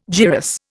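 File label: "gyrus", Indian English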